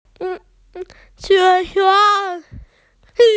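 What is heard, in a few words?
"lun2chuan2", Mandarin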